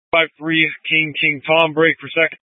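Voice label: Police Dispatch, Scanner audio; Police 1 Dispatch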